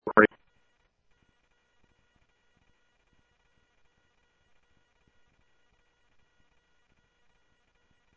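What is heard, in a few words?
Sheriff East County, scanner audio trunk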